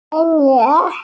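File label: "forest", Mandarin, hearing-impaired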